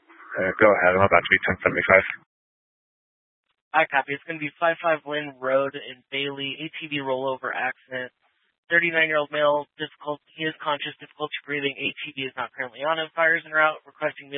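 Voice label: Troop 2A Dispatch, scanner audio recording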